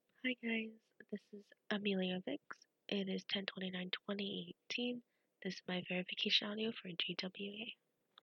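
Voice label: Verification, Female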